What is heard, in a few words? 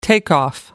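"takeoff", British English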